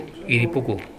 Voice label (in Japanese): "irepoko", minami yamagata